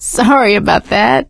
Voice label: sorry, survivor voice; Left 4 Dead 2; producer